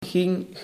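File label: "ging", Dutch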